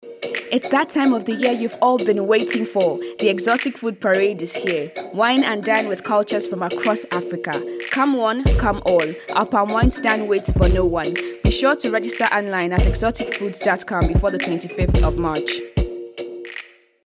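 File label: English African female Commercial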